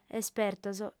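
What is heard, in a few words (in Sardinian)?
"espertos", female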